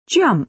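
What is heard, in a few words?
"jump", British English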